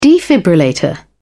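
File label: "defibrillator", British English, dictionary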